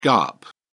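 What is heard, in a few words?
"gaap", British English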